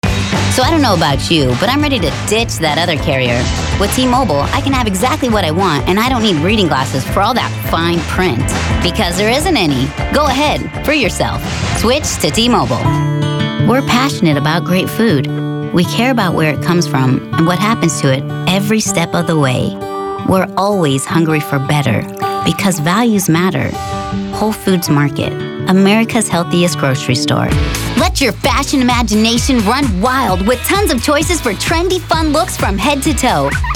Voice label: women commercial